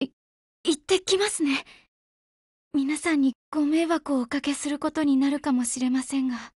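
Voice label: OPERATION BEGIN Japanese, Voice; GF Alarm; Colt Defender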